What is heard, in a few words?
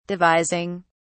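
"devising", British English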